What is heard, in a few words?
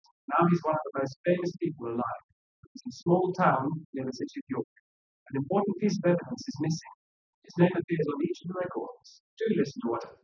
male; SiSEC10; underdetermined